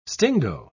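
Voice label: "stingo", English, British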